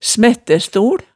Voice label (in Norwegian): "smættæstol", hemsedalsmaalet